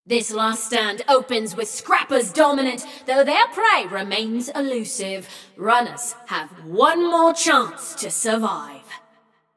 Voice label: Scrappers Lead, Announcer; Flux; Scraprun